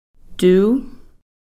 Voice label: "tuu", good

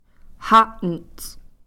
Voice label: "ha'nsE", Cow Creek

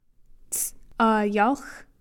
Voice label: "ts'ayalx", Cow Creek

